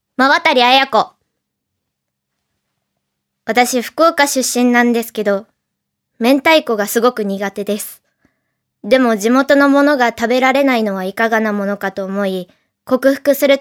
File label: free talk, female voice